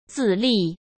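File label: "自立", Mandarin Chinese; chinese-flashcards; hsk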